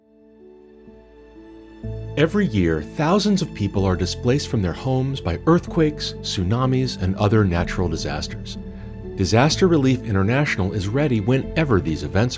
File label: Video Narration - Disaster Relief